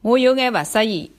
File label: i'm not feeling well, shanghainese